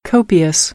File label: "copious", American English; oʊ - əʊ